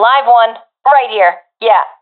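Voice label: sees one enemy, professional female; vo; Counter Strike Model Pack; CS:GO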